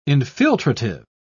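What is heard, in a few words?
"infiltrative", British English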